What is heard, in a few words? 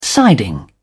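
"siding", British English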